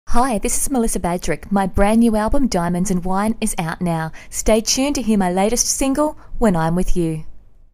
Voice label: Radio ID